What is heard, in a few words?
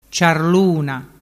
"ciarluna", dialettu